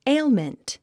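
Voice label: "ailment", English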